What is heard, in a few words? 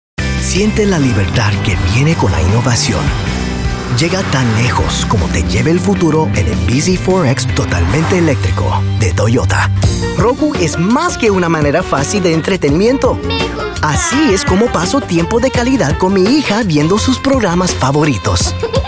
languages men spanish